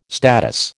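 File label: "status", American English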